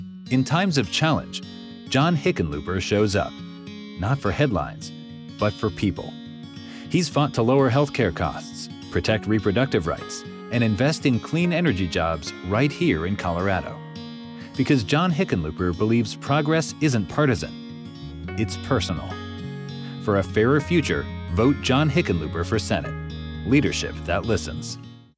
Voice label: Left Candidate Positive, political